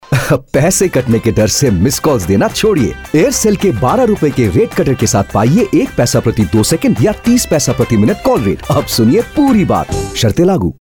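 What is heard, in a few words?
Hindi male voice over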